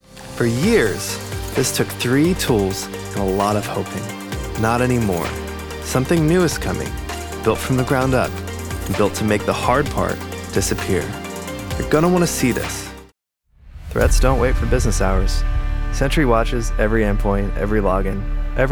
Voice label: corporate explainer, confident conversational male